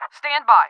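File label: English stand by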